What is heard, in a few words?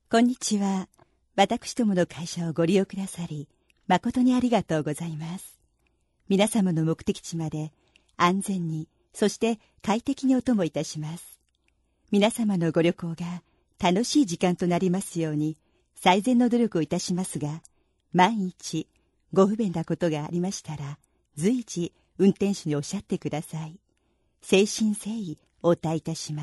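Japanese, female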